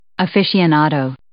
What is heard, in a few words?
"aficionado", English